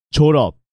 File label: "Joreop", Korean